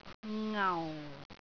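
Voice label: "ngau4", Cantonese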